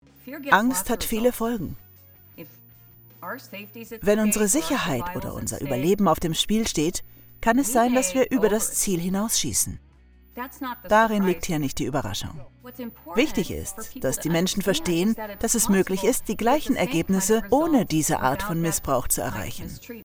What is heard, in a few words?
overlay Interview; LOFT VOICES